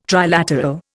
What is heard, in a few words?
"trilateral", Indian